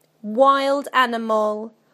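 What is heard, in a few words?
"wild animal", British English; PictureDictionary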